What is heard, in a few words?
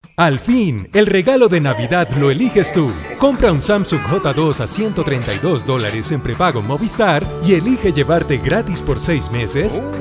Promos